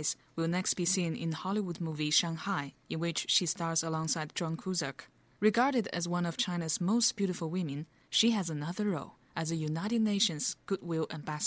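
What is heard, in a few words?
Chinese Accented English; GoLocalise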